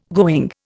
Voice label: "going", Indian